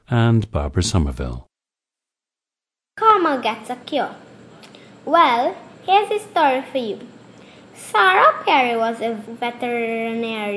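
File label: trinidad, dialects of English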